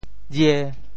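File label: "jie5", Teochew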